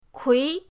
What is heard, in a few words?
"kui2", pinyin